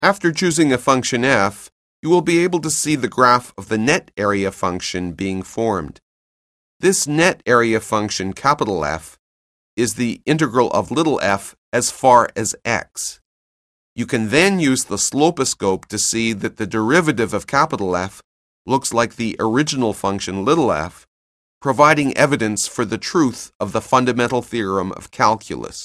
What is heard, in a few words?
narration; CALCULUS 7E; cengage